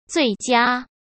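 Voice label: "最佳", Mandarin Chinese; hsk; chinese-flashcards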